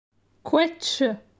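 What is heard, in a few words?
"Quetsche", French; phonetique; la graphie ch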